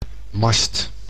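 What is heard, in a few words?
"mast", Dutch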